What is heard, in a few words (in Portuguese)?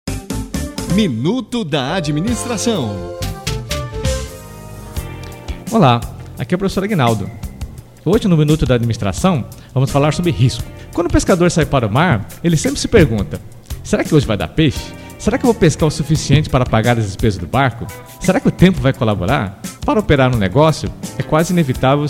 SPOT; Risco